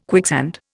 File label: "quicksand", Indian